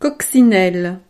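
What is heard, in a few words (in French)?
"coccinelle", French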